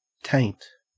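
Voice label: "taint", Australian English; pronunciation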